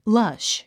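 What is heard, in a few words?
"lush", English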